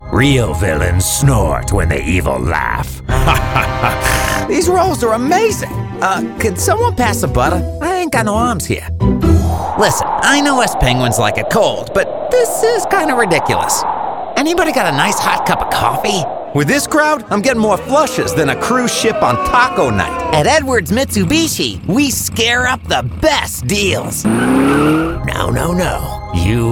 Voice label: voiceover commercial men; Chicago